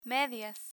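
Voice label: "medias", Spanish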